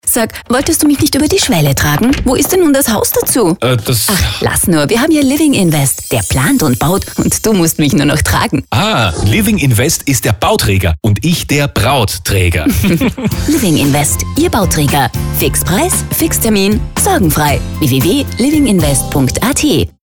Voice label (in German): radiospot; living invest